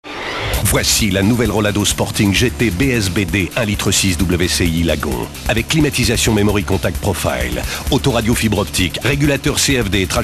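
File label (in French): spot, spots radio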